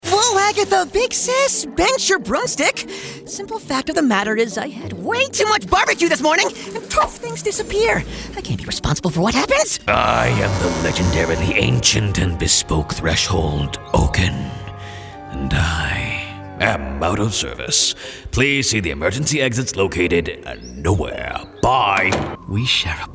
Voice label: Animation